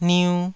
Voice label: "niu2", Taiwanese